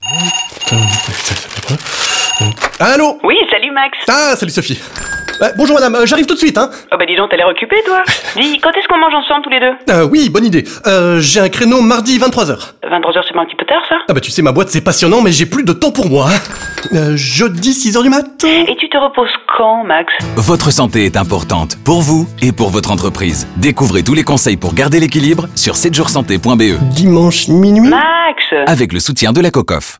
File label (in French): spot radio 30 sec fr; 7 jours sante